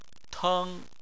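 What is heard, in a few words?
"teng", Chinese, first tone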